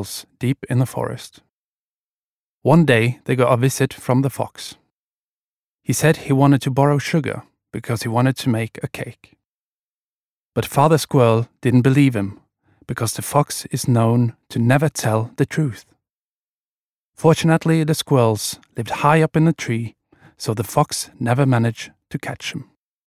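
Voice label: no english, Norwegian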